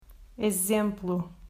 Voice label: "Exemplo", Portuguese

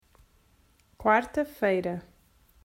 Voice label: "Quarta", Portuguese

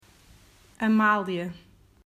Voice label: "Amália", Portuguese

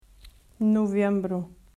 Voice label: "Novembro", Portuguese

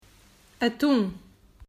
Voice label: "Atum", Portuguese

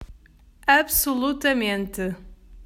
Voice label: "Absolutamente", Portuguese

